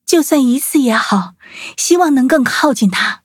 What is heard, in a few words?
upgrade, zh-hant